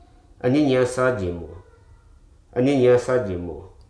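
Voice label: "jot", English, Hruso dictionary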